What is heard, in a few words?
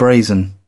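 "braw", British English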